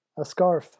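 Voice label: "a scarf", English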